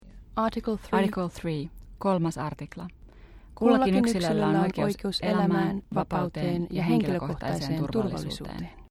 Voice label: Finnish